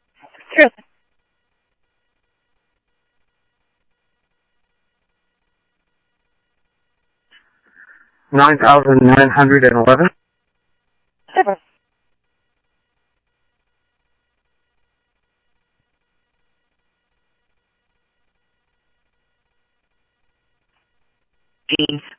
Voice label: Police, scanner; H-Scottsdale; ScottsdalePolice